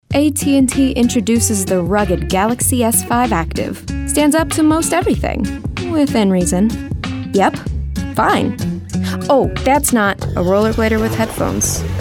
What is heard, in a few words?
Commercial